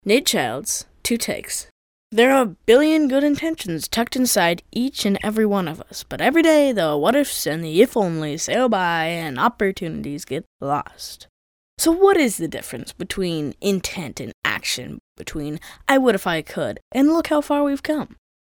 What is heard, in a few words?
kids commercial; Pre-teen. Fun, authentic, and cool.